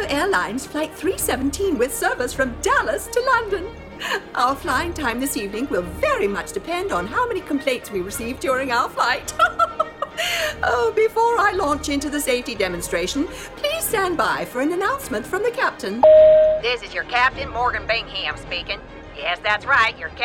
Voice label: Accents Character